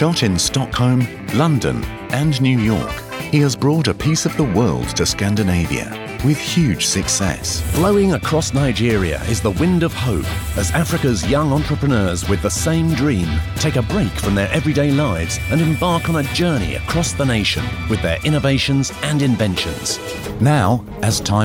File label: english british tv shows